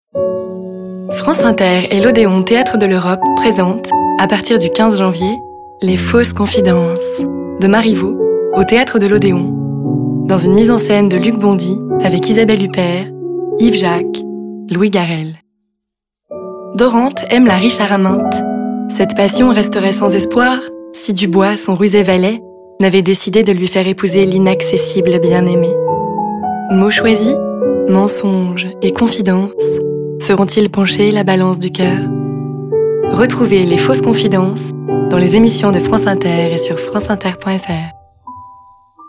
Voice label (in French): Pub Radio; France Inter